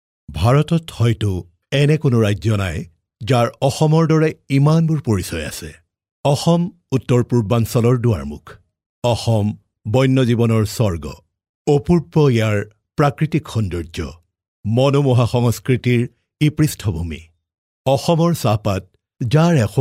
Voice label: assamese male

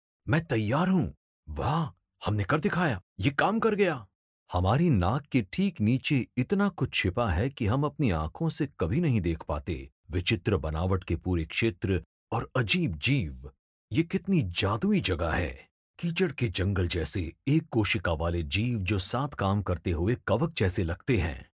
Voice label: hindi male

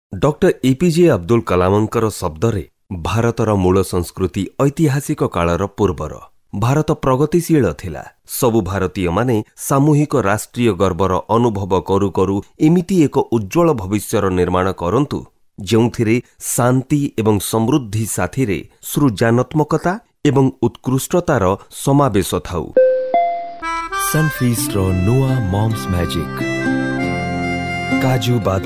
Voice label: Oriya Male

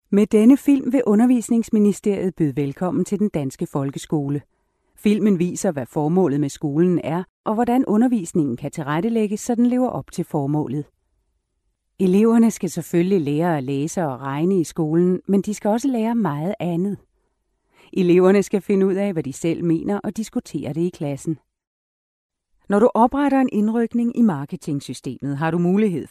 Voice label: Danish Female

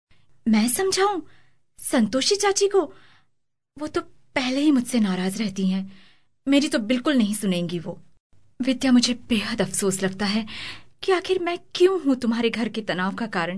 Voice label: Hindi Female

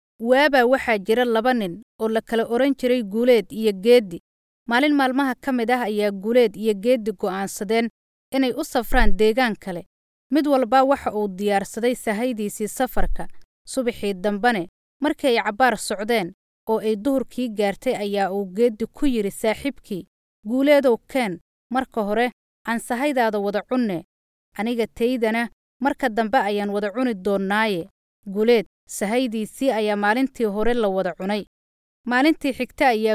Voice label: somali female

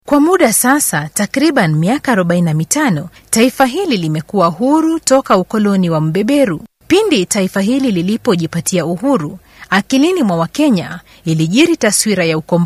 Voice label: Swahili Female

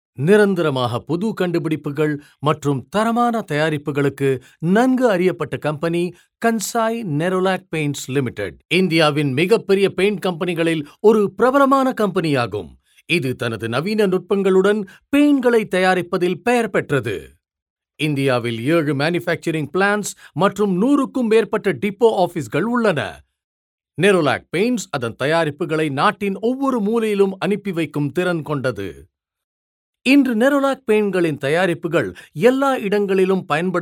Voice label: tamil male

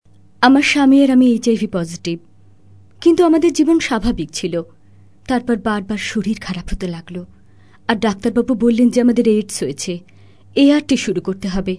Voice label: Bengali Female

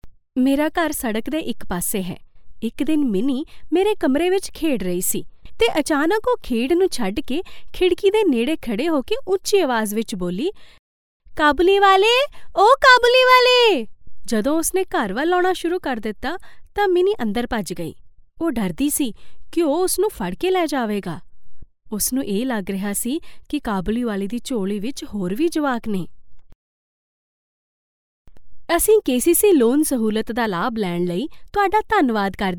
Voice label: punjabi female

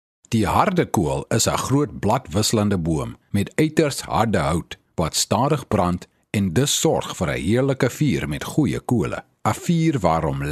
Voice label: Afrikaans Male

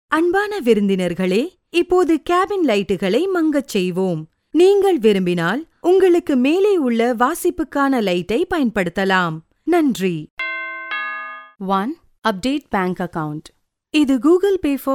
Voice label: tamil female

